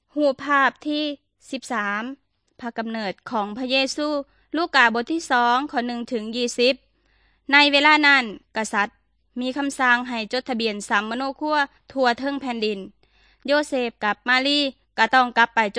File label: good news thai northeastern